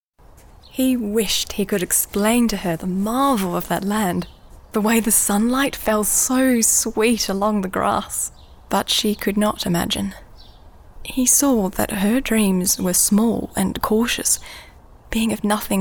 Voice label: Audiobook